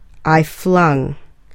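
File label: "I flung", English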